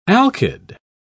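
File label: "alkyd", British English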